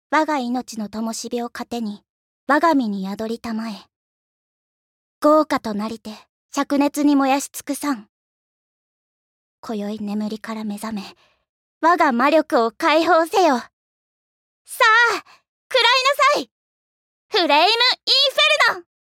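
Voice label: magical girl, Japanese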